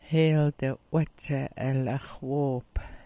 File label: "'watsa", smalgyax